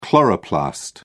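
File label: "chloroplast", English, British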